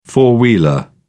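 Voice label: "four wheeler", English, British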